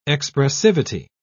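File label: "expressivity", British English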